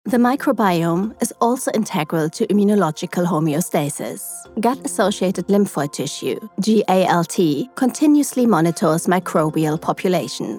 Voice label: Narration English medical tech, voiceover

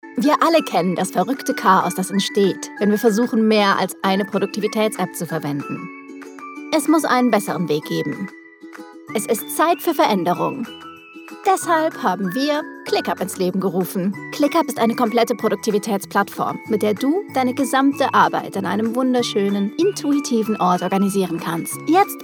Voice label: Narration German, voiceover; ClickUp

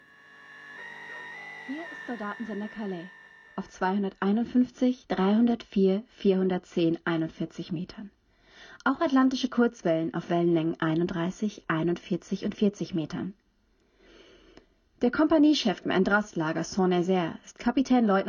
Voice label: DE DRAMA, voiceover; The Vital Spark Intelligence Excerpts

